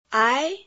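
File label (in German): Phoneme, chinesisch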